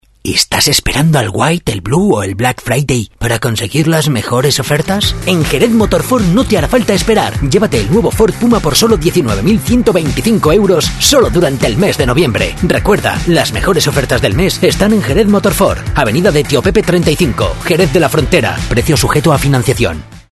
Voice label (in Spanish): HOMBRE